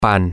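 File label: bread, Spanish, male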